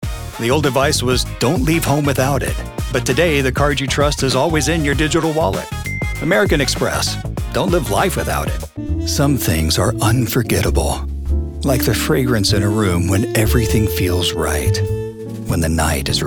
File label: Commercial